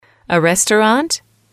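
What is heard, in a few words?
"restaurant", English, female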